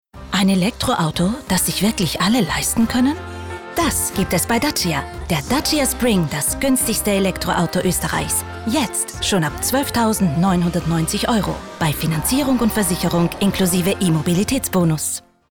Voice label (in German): Frau OFF